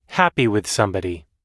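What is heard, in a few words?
"happy with somebody", US English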